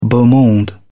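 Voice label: "beau monde", British English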